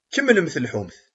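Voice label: "Kemmlet lḥut.", Kabyle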